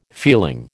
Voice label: "feeling", American

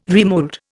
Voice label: "remould", Indian

